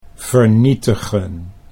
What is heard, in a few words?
"vernietigen", Dutch